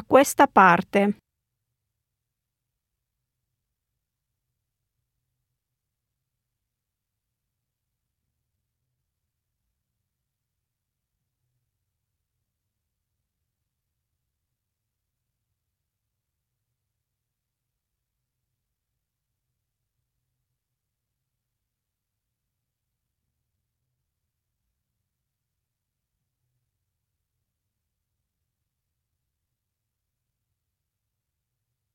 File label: italian directions